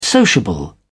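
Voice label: "sociable", British English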